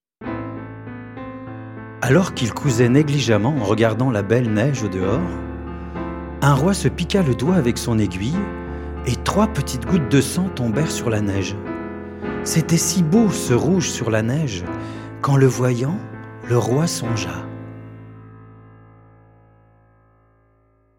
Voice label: narration